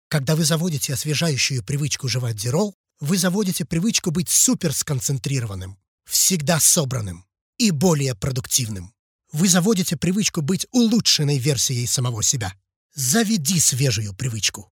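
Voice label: Russian Russia male sales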